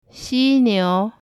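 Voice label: xiniu, rhinoceros, mandarin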